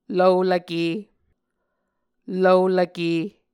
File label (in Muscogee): "lvolvke", Muscogee